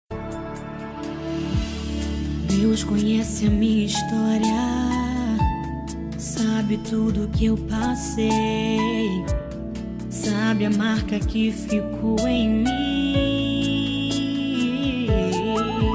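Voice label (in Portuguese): ANIV AFILHADA male, Telemensagem Aniversário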